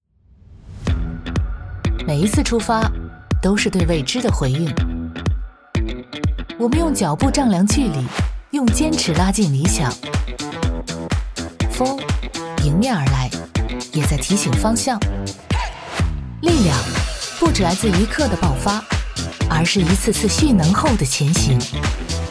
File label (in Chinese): 女 TVC; 理想汽车